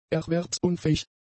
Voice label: "erwerbsunfähig", German; adjectives

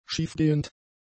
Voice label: "schiefgehend", German; adjectives

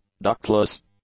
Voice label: "ductless", English; adjectives

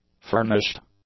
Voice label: "furnished", English; adjectives

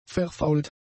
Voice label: "verfault", German; adjectives

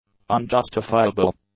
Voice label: "unjustifiable", English; adjectives

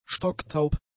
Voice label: "stocktaub", German; adjectives

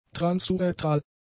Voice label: "transurethral", German; adjectives

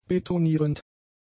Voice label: "betonierend", German; adjectives

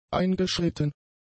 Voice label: "eingeschritten", German; adjectives

